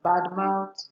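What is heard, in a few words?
"badmouth", Nigerian Pidgin, female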